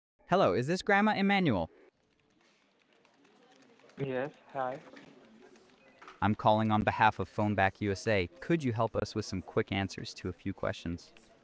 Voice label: conversation english

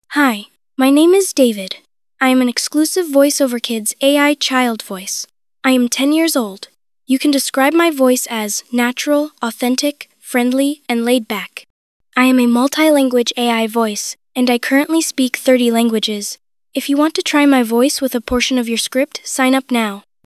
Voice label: boy10; voiceoverkids

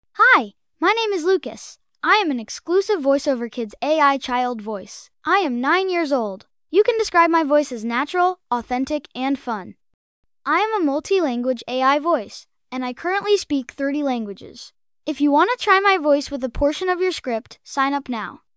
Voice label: boy; voiceoverkids

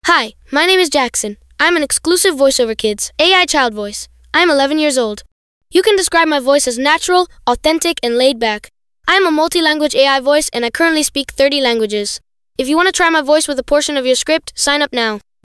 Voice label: boy11; voiceoverkids

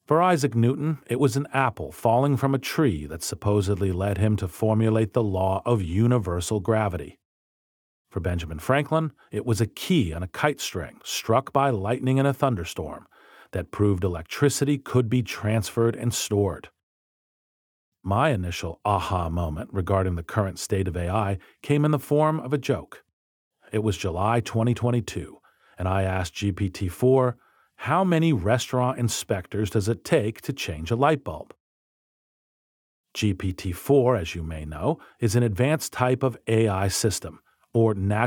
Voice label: Converted voice; Reid Hoffman; Respeecher

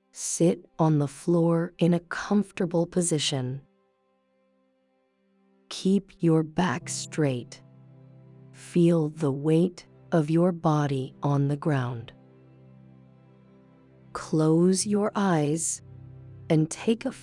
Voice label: Calm; Julia

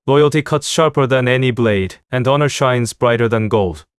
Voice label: character

